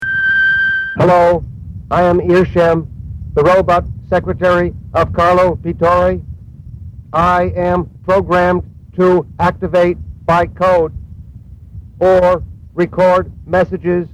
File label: Phone Msg